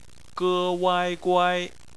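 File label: "guai" bopomofo, Chinese Phonetics; bpmf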